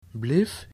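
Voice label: "bleef", Dutch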